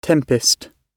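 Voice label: "tempest", British English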